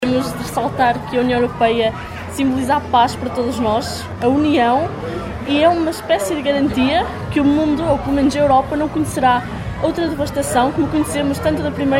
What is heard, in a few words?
vox pop